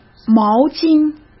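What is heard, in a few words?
"毛巾", Mandarin Chinese; hsk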